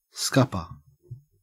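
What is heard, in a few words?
"scupper" English, Australian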